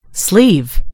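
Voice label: "sleeve", British English; gcompris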